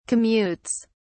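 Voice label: "commutes", British English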